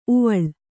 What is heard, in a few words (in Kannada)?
"ಊವಾಳ್", Kannada